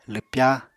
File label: "lwpya", Apatani